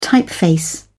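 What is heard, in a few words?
"typeface", English, British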